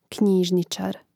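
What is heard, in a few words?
"knjižničar", Croatian; Mrežnik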